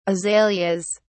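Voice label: "azaleas", British English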